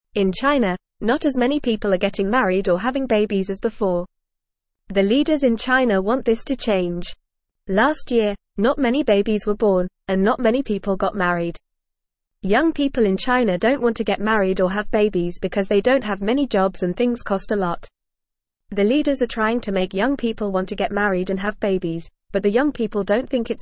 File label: English Newsroom Beginner FAST Reading; Chinas Leaders Want More People to Get Married